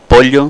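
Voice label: "pollo", Spanish; fonetica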